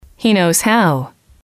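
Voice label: "he knows how", English, female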